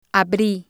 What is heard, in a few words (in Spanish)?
"abri", spanish